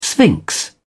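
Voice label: "sphinx", British English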